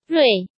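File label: "Ruì", Chinese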